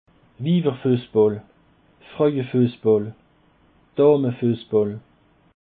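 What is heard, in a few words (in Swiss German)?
"wiwer fussball", Alsatian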